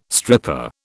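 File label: "stripper", British English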